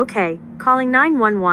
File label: ok calling 911 prank; siri